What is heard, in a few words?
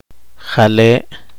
poqomchi; gracias